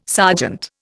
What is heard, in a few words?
"sergeant", Indian